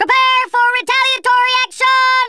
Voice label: just you wait, Drill Sergeant; Worms Armageddon